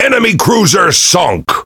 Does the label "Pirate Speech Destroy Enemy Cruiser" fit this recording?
Yes